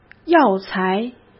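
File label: "药材", Mandarin Chinese; hsk; chinese-flashcards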